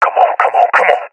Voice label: scared; Source Model Packs; Counter Strike Model Pack; CS2